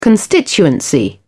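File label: "constituency", English, British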